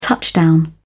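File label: "touchdown", English, British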